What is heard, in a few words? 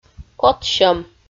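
"котсьӧм", Komi, female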